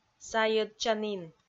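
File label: "сайӧдчанін", Komi, female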